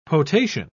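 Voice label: "potation", British English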